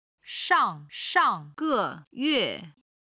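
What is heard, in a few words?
"shangshangge xingqi", Chinese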